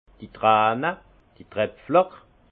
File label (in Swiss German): "trane", alsace; larmes; vin